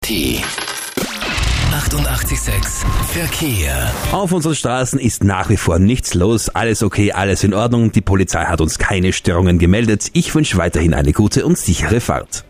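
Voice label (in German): Verkehr Aircheck, Moderator im Rundfunk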